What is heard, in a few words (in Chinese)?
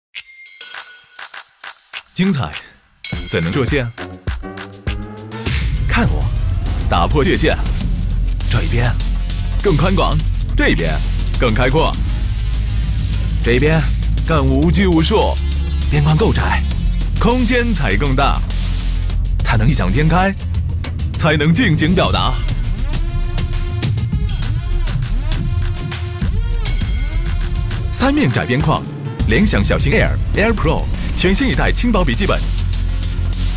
男 广告 科技产品类 笔记本广告 年轻; 联想